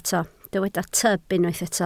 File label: "tyb", Welsh